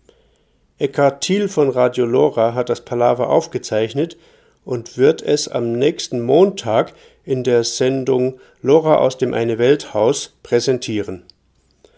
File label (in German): Ansage; Attac; LoRa